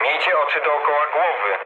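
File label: Polish chat; War Thunder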